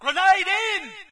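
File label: British fire in hole; player; source engine; dod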